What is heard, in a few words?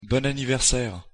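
pronunciation "bon anniversaire", French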